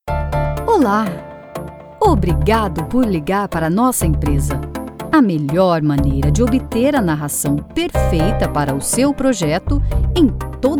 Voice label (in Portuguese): portuguese brazilian ivr